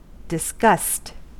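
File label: "disgust", American English; pronunciations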